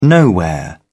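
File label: "nowhere", British English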